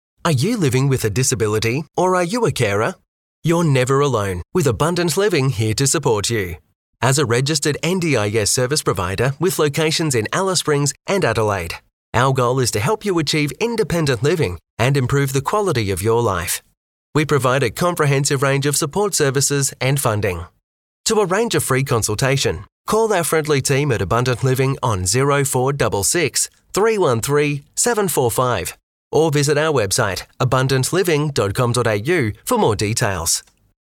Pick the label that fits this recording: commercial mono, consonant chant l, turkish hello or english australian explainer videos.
english australian explainer videos